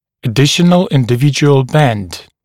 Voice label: "additional individual bend", dental english; glossary